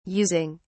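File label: "using", British English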